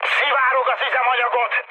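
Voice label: Hungarian tank damaged mood high, speech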